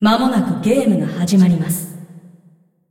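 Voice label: announcer female tournaments round start; clash; ja jp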